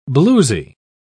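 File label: "bluesy", British English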